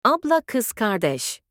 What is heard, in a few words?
sister in turkish